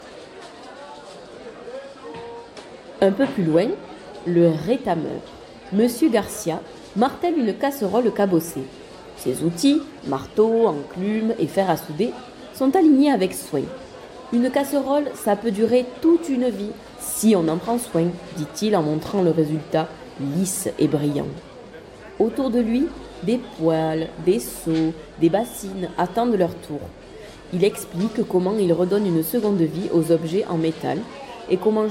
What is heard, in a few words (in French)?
place marche narrateur